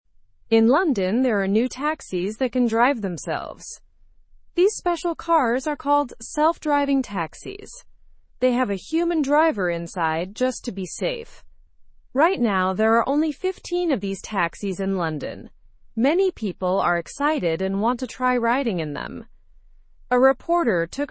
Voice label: learn english through news beginner normal; ride in uber s new self driving taxis

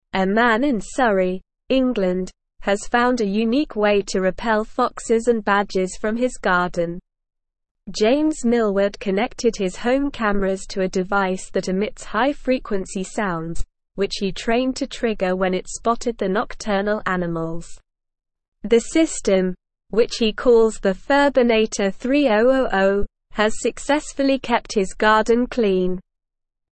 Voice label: English Newsroom Advanced SLOW Reading; Home Camera System Uses High Frequency Sounds to Repel Animal

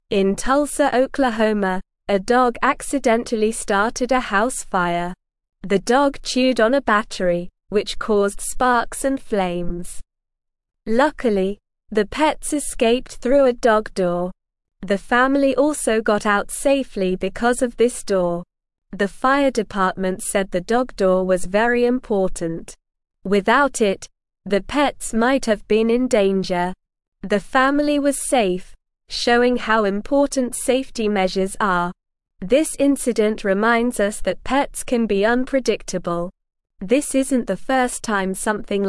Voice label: English Lower Intermediate SLOW Reading; Dog Starts Fire But Everyone Gets Out Safe; English Newsroom

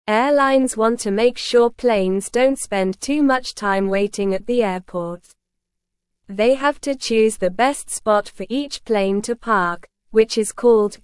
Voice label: English Beginner SLOW Reading; Choosing the Best Gate for Airplanes at Airports

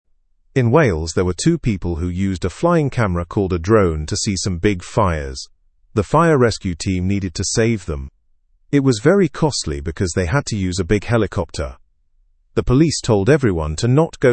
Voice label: english through news beginner fast; stay safe from wales wildfires

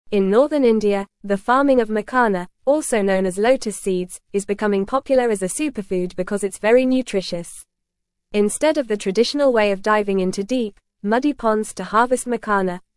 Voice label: English Upper Intermediate FAST Reading; Revolutionizing Makhana Cultivation Transforming Agriculture in Northern India

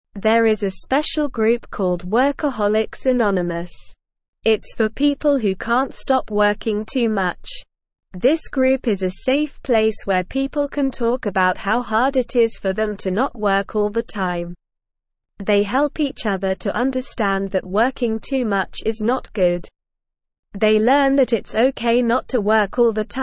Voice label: English Beginner SLOW Reading; Workaholics Anonymous Helping People Who Work Too Much

